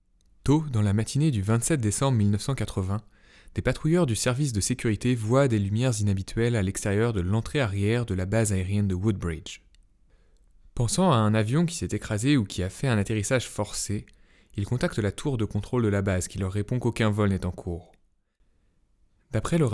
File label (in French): voix off